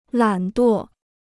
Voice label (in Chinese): "懒惰", Chinese